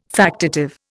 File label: "factitive", Indian